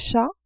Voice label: Chat